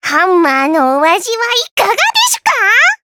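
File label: Vox Skill Japanese; Taily; kings raid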